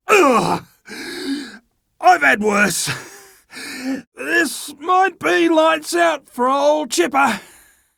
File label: Downed, en, Dialog Barks Battle; Warframe; Chipper